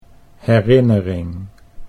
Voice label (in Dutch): "herinnering", Dutch